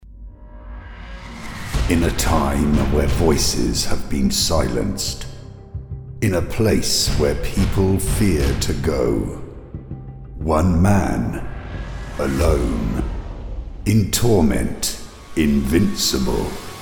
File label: Movie Trailer